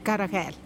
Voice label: "karajed" dawn; karagel